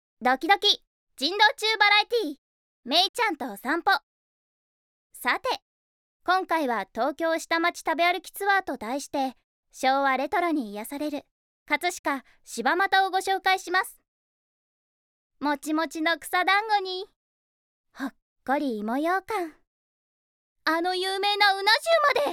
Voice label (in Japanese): ナレーション